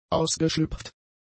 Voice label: "ausgeschlüpft", German; adjectives